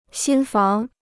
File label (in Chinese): "新房", Chinese